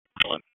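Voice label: FIRE Operations, p25; FIRE OPS 1; wake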